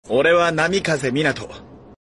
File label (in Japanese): "ore wa namikaze minato", saying his name